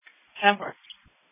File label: Sheriff Dispatch